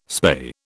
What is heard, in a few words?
"spay", British